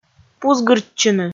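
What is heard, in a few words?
"пузгыртчыны", Komi, female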